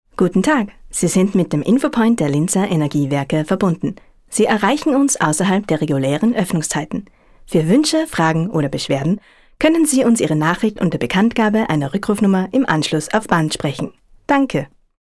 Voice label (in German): Telefonansage